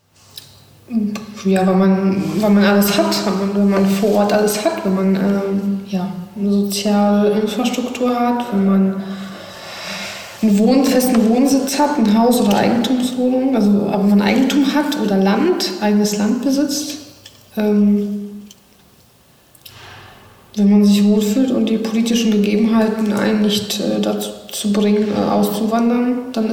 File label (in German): polnisch